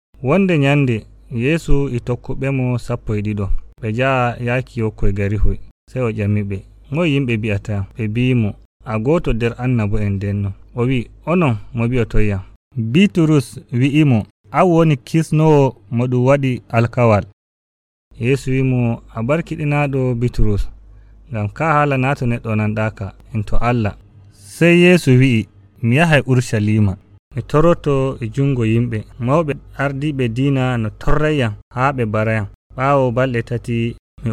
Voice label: Fulfulde Nigerian; Jesus Asks Who Do People Say That I Am

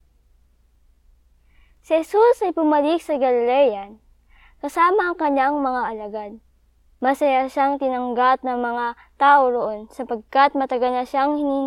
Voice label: Tagalog; Muling Binuhay; Jairus' Daughter

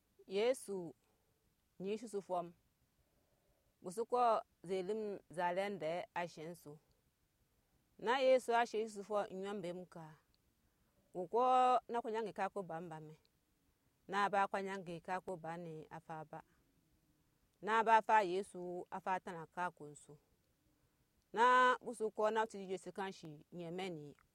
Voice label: Anufo; Entrée triomphale de Jésus à Jérusalem; Triumphal Entry

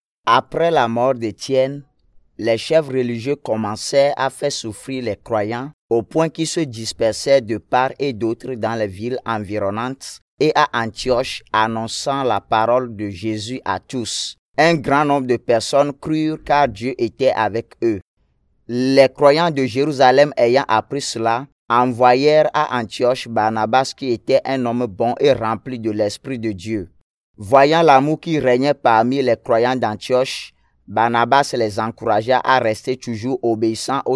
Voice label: French; Expansion de l'Eglise à Antioch; Church Expands to Antioch

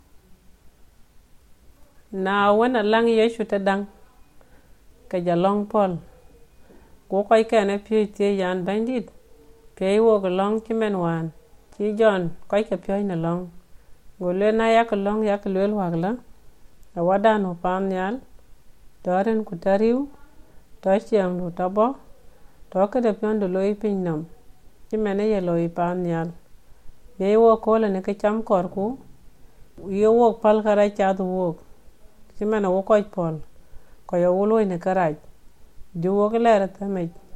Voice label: Dinka Padong; Teachings on Prayer

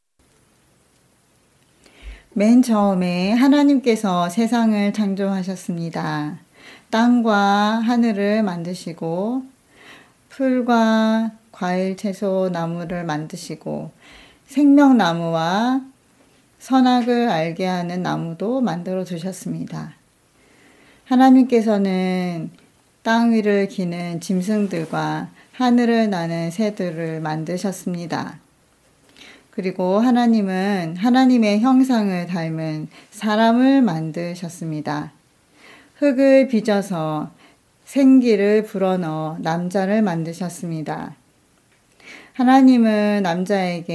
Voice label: Korean; Changjo; Creation

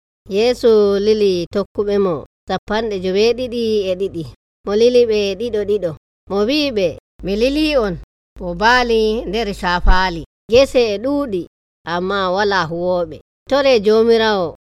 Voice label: Fulfulde Nigerian; Jesus Sends Out The Seventy Two and Bartimaeus

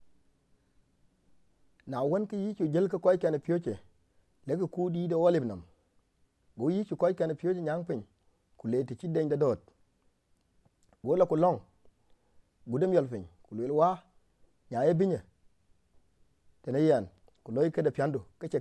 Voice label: Dinka Padong; Arrest and Trial of Jesus

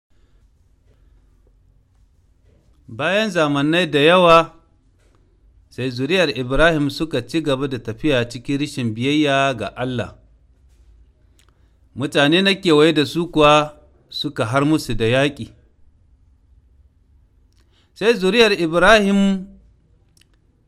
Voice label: Hausa Niger; Promesse du Sauveur; Suffering Servant

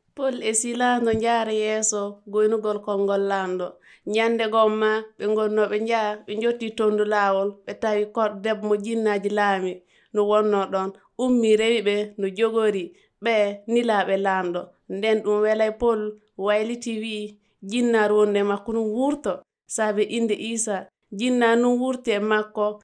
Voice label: Fulfulde Northeastern Burkina Faso; Jettoje Ley Geyyele; Paul and Silas Worship in Prison

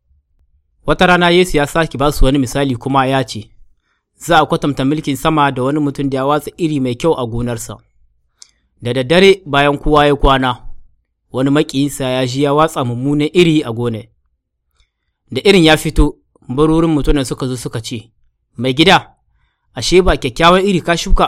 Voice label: Hausa Niger; L'Ivraie; Harvesting Souls part 2

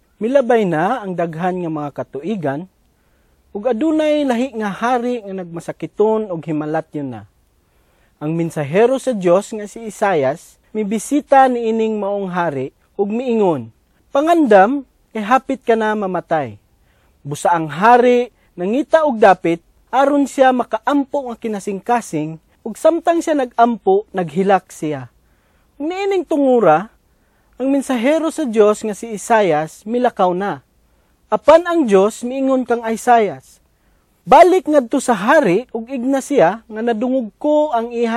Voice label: Cebuano

